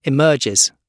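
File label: "emerges", British English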